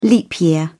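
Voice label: "leap year", English, British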